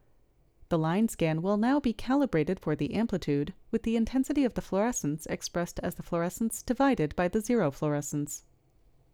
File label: Voiceover